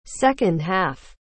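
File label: "second half", English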